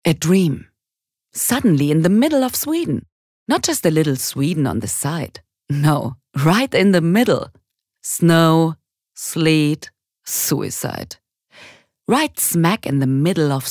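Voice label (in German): English UK Schauspiel Freundlich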